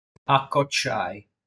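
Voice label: "Acociai", Sardinian word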